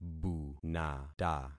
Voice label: "bunata", concat syllables; Affixing experiment